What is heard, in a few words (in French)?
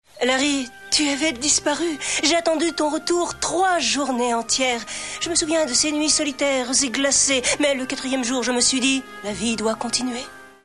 Femme La Vie Continue; SouthPark